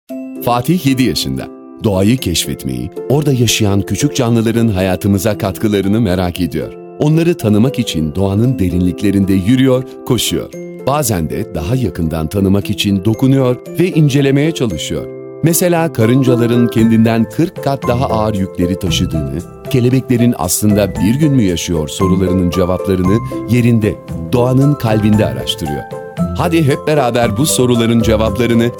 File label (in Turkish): tanitim; kelebeklervadisi